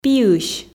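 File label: "пиысь" Komi, female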